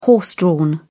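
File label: "horse-drawn", British English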